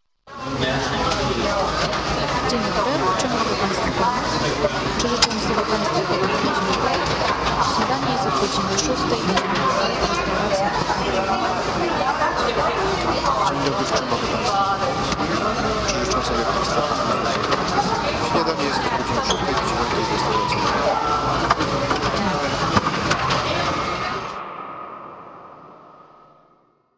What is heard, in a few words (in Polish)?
Duzy ubytek i aparat sluchowy